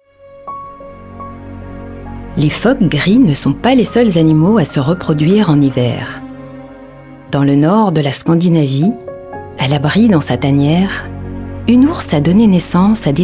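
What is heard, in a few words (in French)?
DOCU animalier, Voix off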